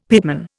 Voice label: "pitman", Indian; Dictionary

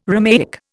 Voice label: "Romaic", Indian

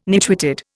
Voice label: "nitwitted", Indian

